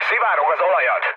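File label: Hungarian damaged oil mood low, speech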